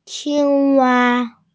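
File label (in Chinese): "qing1wa1", Chinese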